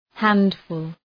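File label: "handful", English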